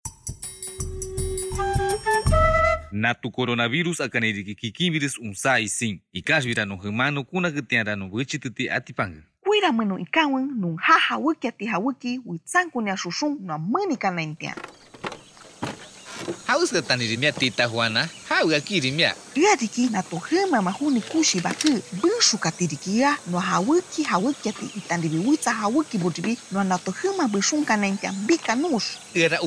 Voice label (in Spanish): shipibo konibo entrega de bienes y alimentos 2 voces, spot de radio; medidas de prevencion